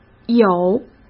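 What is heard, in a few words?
"有", Mandarin Chinese; chinese flashcards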